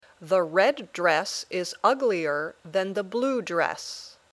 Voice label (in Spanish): Dictado